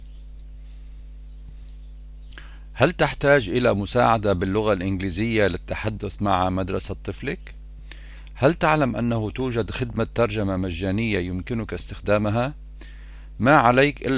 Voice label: arabic; public schools; going to a public school